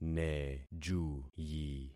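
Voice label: "nejuyi", concatenated syllables; Affixing experiment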